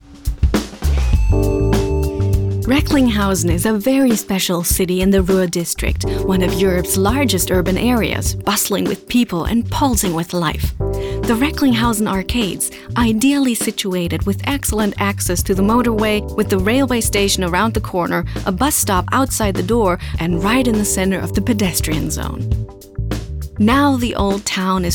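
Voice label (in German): US English, Kommentar Freundlich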